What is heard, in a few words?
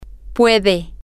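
she can, Spanish, female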